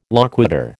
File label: "loquitur", American